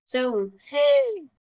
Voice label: Voice Acting, es